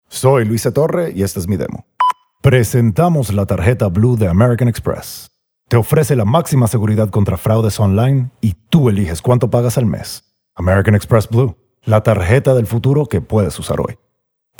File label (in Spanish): Spanish; Foreign Languages